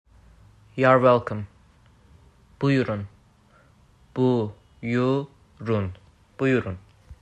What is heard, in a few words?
"You're welcome." "Buyurun.", azerbaijani